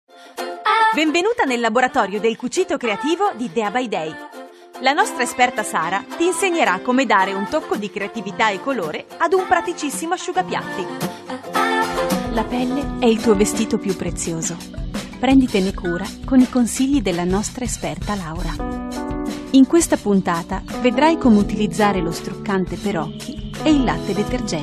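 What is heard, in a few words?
Italian, female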